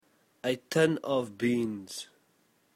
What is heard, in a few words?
tin of beans, South African English